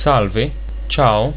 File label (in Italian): "salve", italiaans